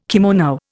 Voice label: "kimono", Indian